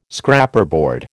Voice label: "Scraperboard", American